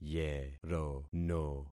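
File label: "yerono", concatenated syllables; Affixing experiment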